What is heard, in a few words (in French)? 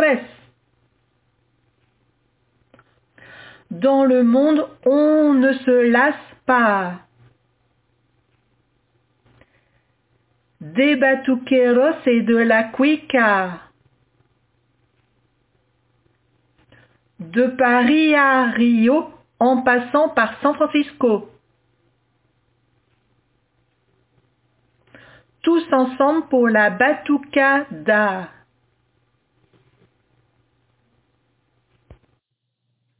Samba Cada Paroles parlées à répéter